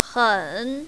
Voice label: "hen3", mandarin, female